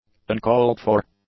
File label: "uncalled-for", English; adjectives